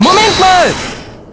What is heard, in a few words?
"Hold it", German; Apollo